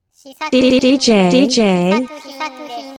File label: How to say your DJ name, female